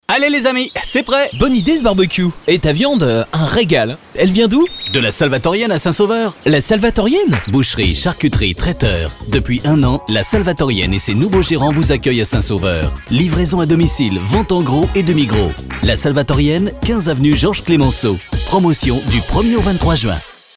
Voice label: spot, radiopub